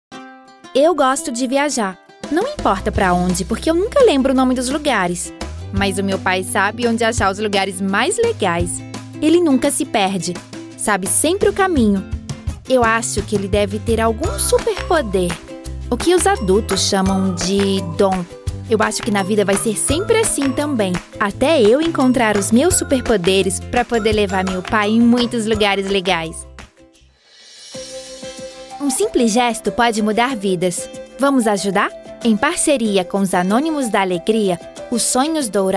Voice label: portuguese brazilian

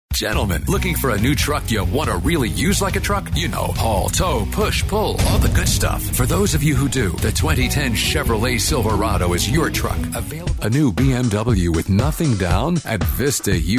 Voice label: english american automotive; North American English professional, working voice actor

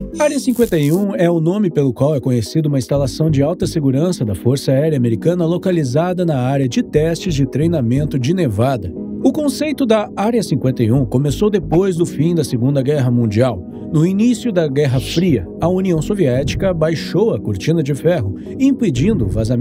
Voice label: portuguese brazilian narration

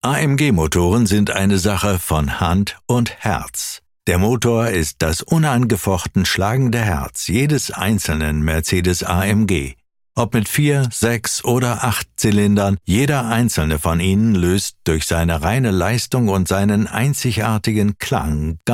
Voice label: german e-learning

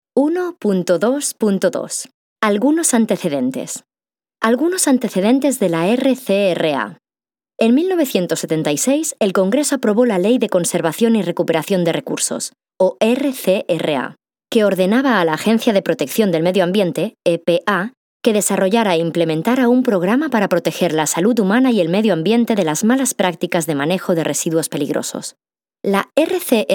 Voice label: spanish e-learning

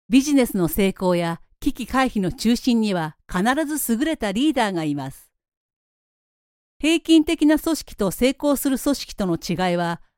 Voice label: japanese e-learning

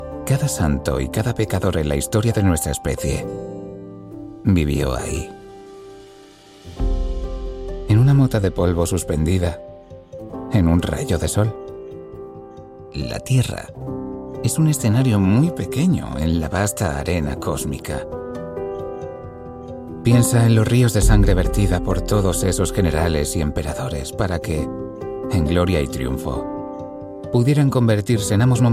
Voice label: spanish narration

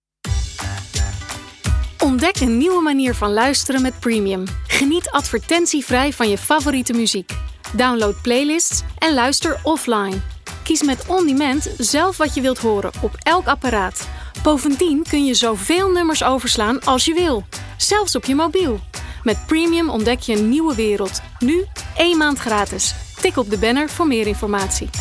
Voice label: dutch corporate videos

